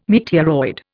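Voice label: "meteoroid", English, Indian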